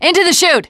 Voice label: gravel hill, teengirl